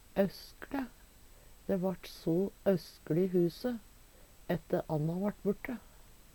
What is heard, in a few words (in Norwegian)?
"auskLe", Numedalsmål; Dæ vart so auskLe i huse ætte Anna vart borte.